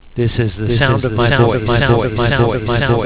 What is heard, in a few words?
voice Echo